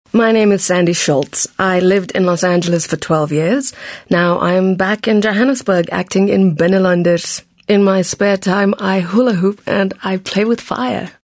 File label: english conversational